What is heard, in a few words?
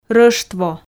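"рӧштво", Komi, female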